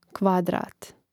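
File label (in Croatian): "kvadrat" Croatian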